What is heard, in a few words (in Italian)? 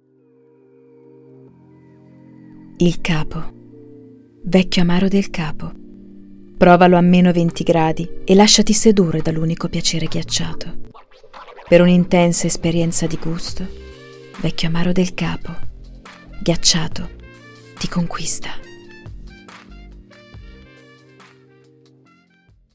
Pubblicità; Amaro del capo